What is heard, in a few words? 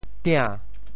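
"tia~2", Teochew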